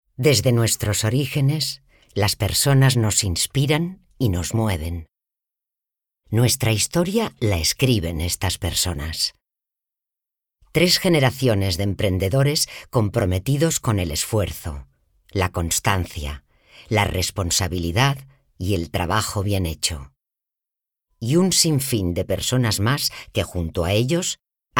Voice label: female spanish comercial; ideasonora